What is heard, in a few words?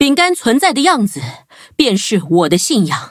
loading, zh-hant; Cookie Run